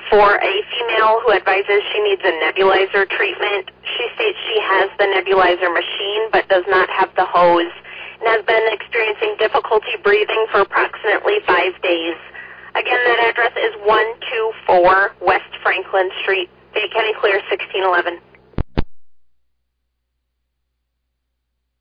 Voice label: Ambulance, dispatch